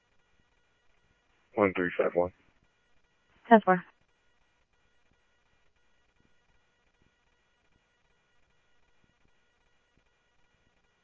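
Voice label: police department, scanner audio trunk; SCTSDLE PD - PD2 ScottsdalePolice; ThompsonPeakHigh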